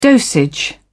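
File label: "dosage", British English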